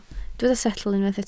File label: "setl", Welsh